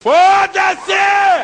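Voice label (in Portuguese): "foda-se", Portuguese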